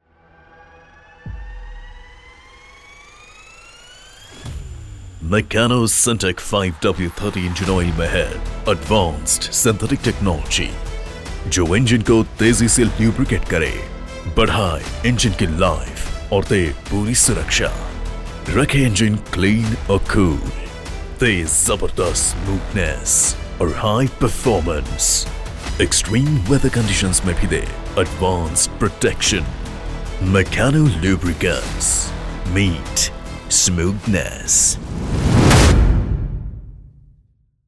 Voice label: Hindi Advertisment